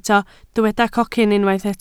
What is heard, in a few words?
"cocyn", Welsh